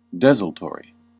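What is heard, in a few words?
"desultory", US English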